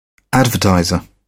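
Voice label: "advertiser", British English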